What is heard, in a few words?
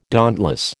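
"dauntless", American English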